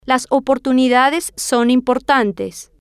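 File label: las Oportunidades Son Importantes, Spanish, female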